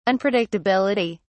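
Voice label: "unpredictability", British English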